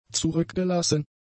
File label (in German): "zurückgelassen", German; adjectives